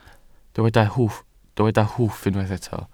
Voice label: "hwff" Welsh

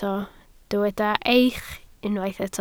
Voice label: "eich" Welsh

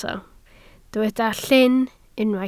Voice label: "llyn", Welsh

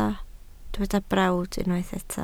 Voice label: "brawd", Welsh

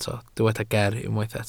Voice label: "ger" Welsh

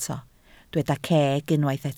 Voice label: "ceg", Welsh

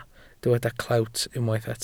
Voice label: "clewt" Welsh

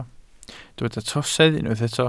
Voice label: "trosedd", Welsh

